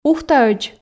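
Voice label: "ухтаӧдз" Komi, female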